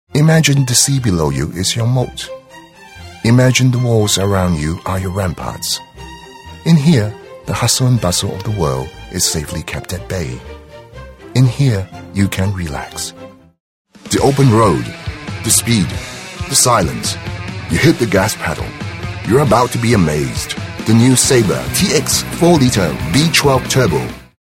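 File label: British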